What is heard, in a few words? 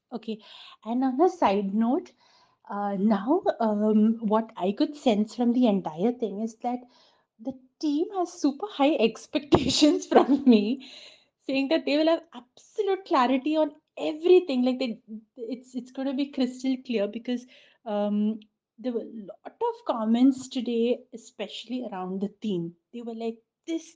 WhatsApp Voice Message